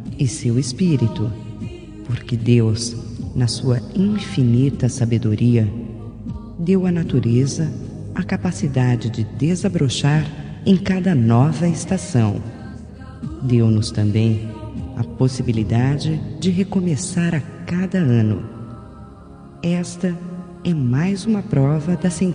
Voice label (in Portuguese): religiosa fem, Aniversário Religioso – Voz Feminina